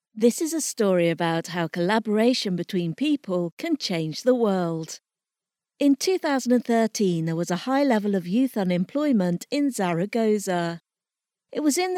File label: Narration Examples